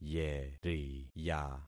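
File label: "yeriya", concatenated syllables; Affixing experiment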